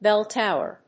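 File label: "bell tower", English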